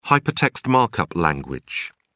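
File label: "hypertext markup language", British English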